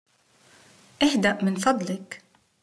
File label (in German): sei leise, Arabisch; Interkultur; LearningApp